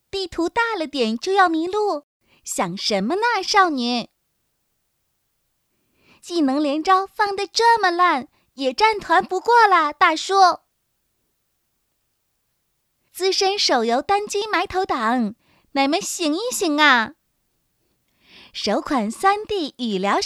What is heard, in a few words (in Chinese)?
女, 模仿, 动漫游戏女童